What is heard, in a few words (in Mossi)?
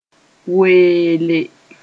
"weele", moore